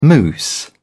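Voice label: "moose", British English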